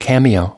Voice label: "cameo", us

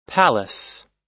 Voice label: "palace", us

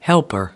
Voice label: "helper", us

